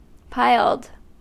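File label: "piled", American English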